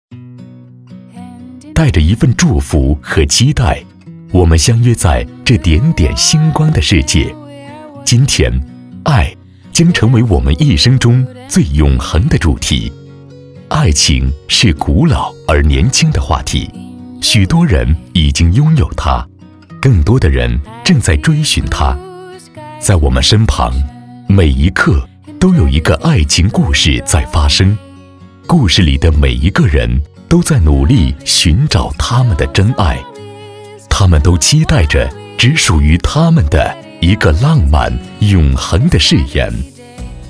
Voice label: 男 旁白 舒缓婚礼解说, 国语男声配音 浑厚

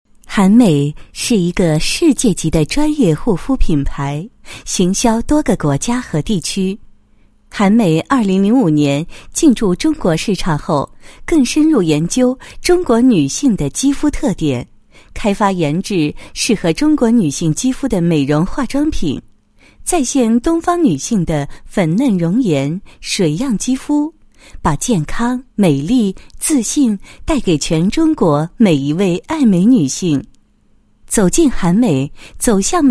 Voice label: 女 广告, 国语女声配音

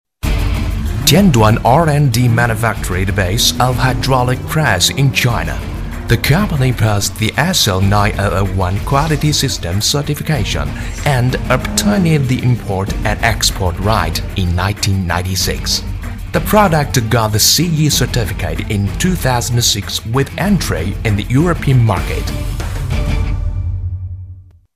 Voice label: 英男, 音乐版; 天津天端公司

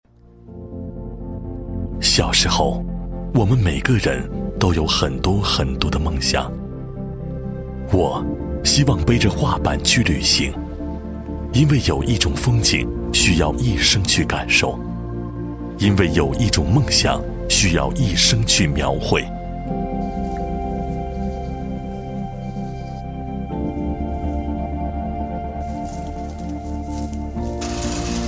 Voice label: 男60号 高端品牌; 国语男声配音; 交广主播; 导演版 2016我和我追逐的梦想 描绘世界篇

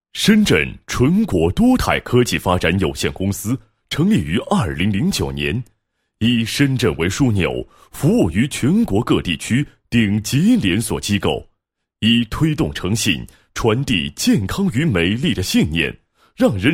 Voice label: 男 解说词; 纯果多肽7周年视频解说词

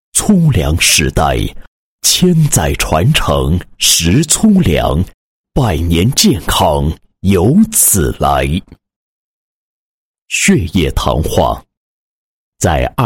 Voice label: 男 宣传广告 粗粮宣传视频

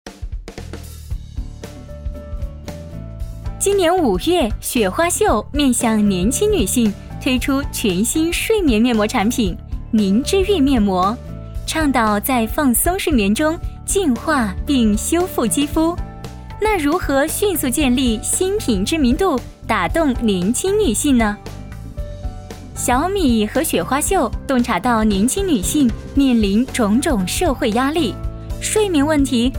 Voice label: 女, 广告, 活泼清新; 小米X雪花秀

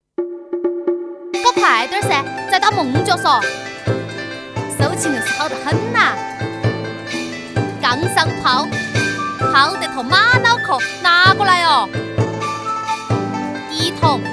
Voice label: 女 川话 四川麻将; 四川麻将样音

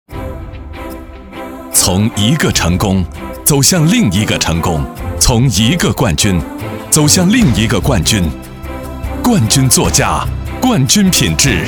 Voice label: 男 汽车广告 大气有力; 福田

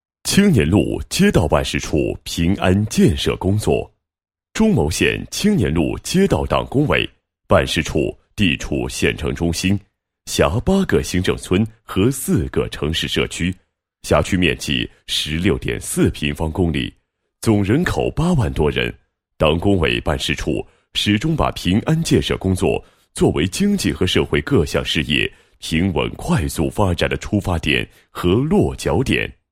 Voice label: 男 解说; 青年路街道办事处平安建设工作

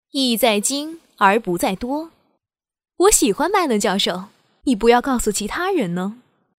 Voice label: 游戏 动画片 人物 御姐音迷人; 方言

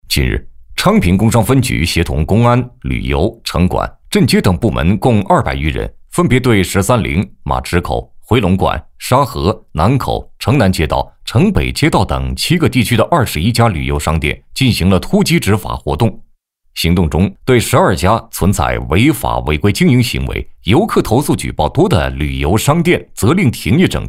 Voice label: 男100号-栏目-新闻 旅游区突击执法, 国语男声配音

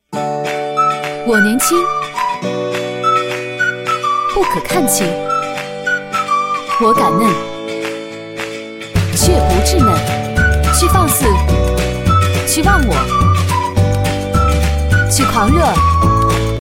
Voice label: 女, 广告, 品牌形象, 广告片; 韩束广告片

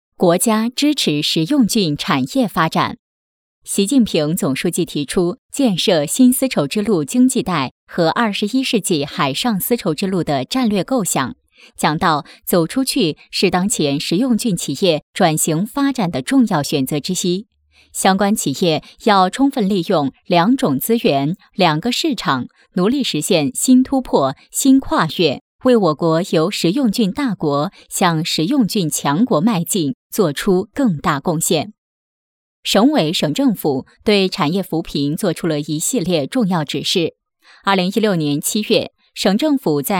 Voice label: 女 新闻; 国家支持食用菌产业发展

